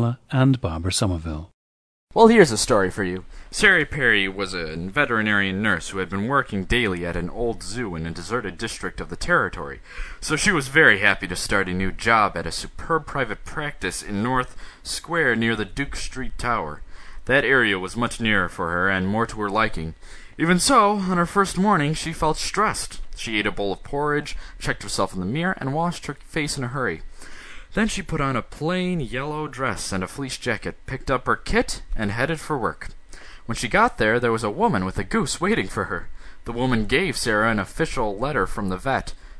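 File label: indiana, International Dialects of English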